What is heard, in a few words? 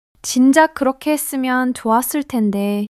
joy; monologue